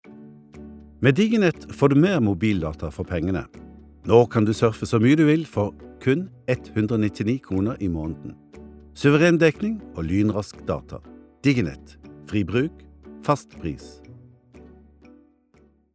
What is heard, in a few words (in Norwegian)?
no reklame, norsk, mann